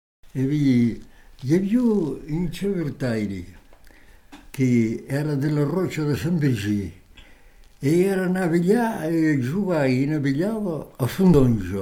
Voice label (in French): conte nord cantal